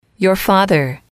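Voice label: "father", english, female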